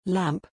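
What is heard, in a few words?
"lamp", English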